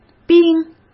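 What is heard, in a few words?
"兵", Mandarin Chinese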